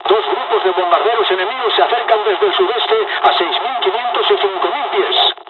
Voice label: dialog, dialogs spanish; War Thunder

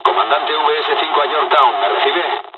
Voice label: dialogs spanish; War Thunder

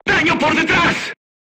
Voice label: voice message shot back, Spanish

